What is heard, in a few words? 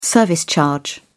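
"service charge", British English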